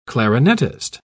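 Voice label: "clarinetist", British English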